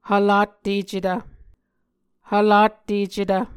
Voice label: "hvlattecetv", Muscogee

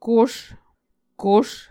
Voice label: "kos", Muscogee

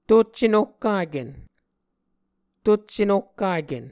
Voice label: "tuccenohkaken", Muscogee; Mvskoke Words with Repeated Consonants; A Dictionary of Creek/Muskogee; SIL International

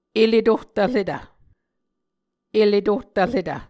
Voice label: "ele tohtvretv", Muscogee

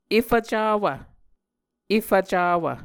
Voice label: "efv cawv", muscogee

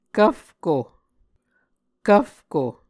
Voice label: "kvfko", Muscogee

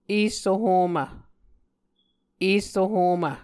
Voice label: "esso homv", Muscogee

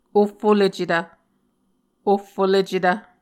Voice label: "ohfolecetv", Muscogee

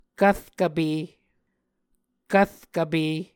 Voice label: "kvrkvpe", Muscogee

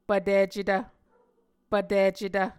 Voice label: "pvticetv", Muscogee

